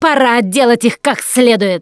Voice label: incoming, russian, Survivor Voice Producer

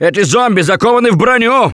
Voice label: see armored, russian, Survivor Voice; Gambler

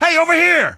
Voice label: "over here", male; npc; Garry's Mod; hl2

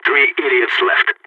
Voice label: three enemies left, balkan; Counter-Strike Global Offensive

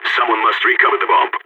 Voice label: spotted loose bomb, balkan; Counter-Strike Global Offensive

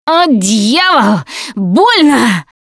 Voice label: going to die, russian; Producer

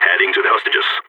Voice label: going to guard hostages, balkan; Counter-Strike Global Offensive

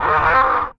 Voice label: feral strike vox; Beyond the Sword; Units

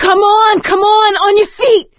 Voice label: revive friend loud, survivor voice; Left 4 Dead 2; producer

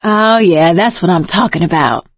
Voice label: pain relief first aid, survivor voice; Left 4 Dead 2; producer

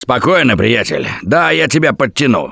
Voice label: Ledge Save, russian, Survivor Voice; Gambler

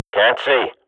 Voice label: radio bot post flash, Counter-Strike swat; Global Offensive; player vo

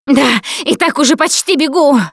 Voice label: going to die, russian; Producer

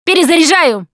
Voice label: reloading, russian, Survivor Voice Producer

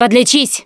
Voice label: suggest health, russian; Producer

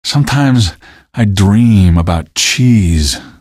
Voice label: question, male; Garry's Mod; hl2

